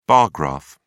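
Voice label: "bar graph", British English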